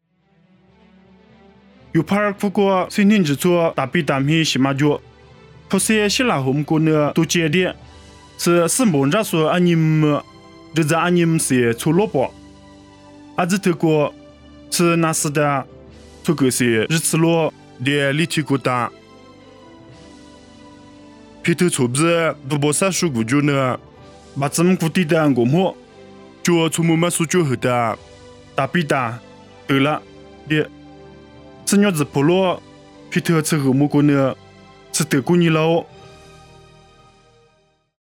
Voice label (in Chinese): 凉山彝语; 以色列约帕; nuosu